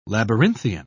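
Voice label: "labyrinthian", British English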